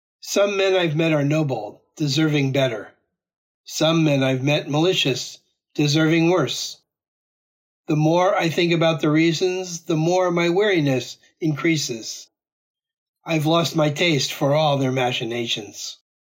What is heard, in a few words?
Poem English; one-hundred-poems-from-old-japan